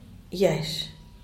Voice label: "yash", aguacate; Díaz Ordaz